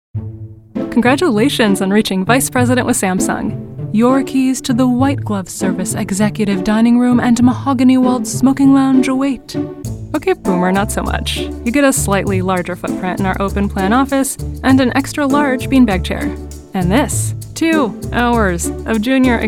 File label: E Learning